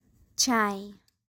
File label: Urdu